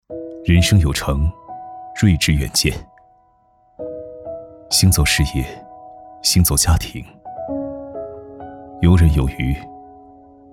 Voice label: 男 走心

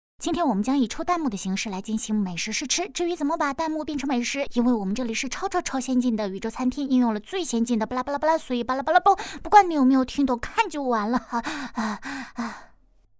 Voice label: 女 角色 动画形象主持

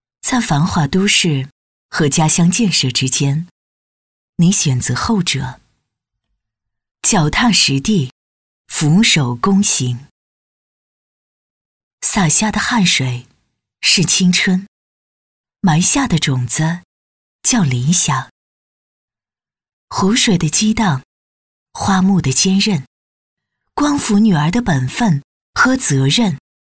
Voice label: 女 稳重大气

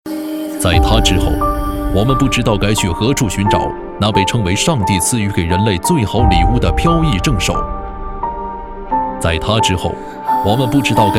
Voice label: 男 人物解说; 网坛英雄传 费德勒 最后的古典骑士

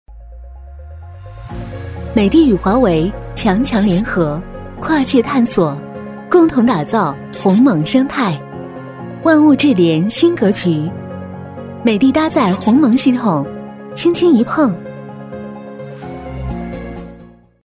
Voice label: 女,广告; 美的天空之镜TG8S热水器

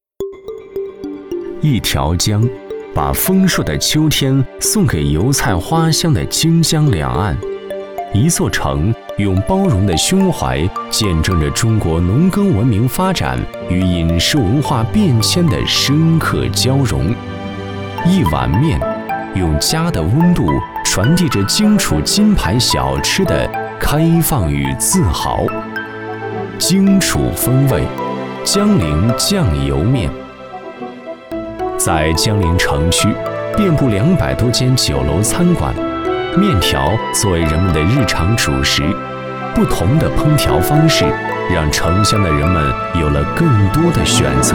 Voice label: 男 记录 舌尖美食

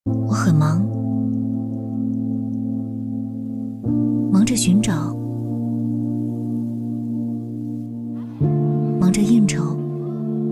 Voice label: 女 走心旁白; 民丰银行掌心厅

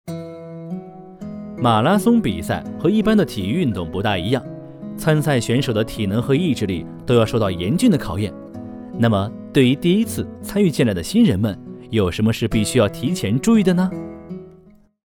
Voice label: 男 解说 年轻活力 马拉松比赛

